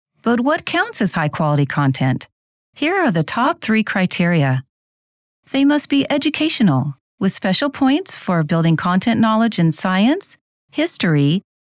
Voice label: E-Learning Vox Only